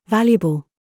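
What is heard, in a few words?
TRAP, words, British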